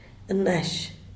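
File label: "nash", chocolate, Zapotec, Díaz Ordaz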